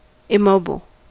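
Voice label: "immobile", US English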